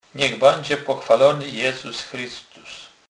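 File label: "pochwalonka", Polish, Kurpie dialect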